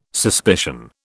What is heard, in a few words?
"suspicion", British